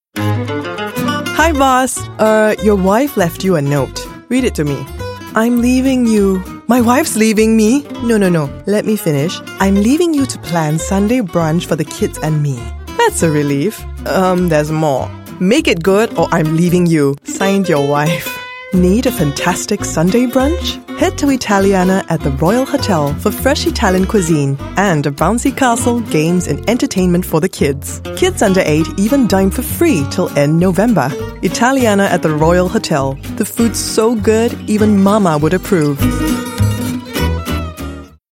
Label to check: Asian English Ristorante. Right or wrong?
Right